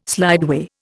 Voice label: "slideway", Indian